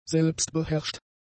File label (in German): "selbstbeherrscht", German; adjectives